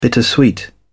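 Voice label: "bittersweet", British English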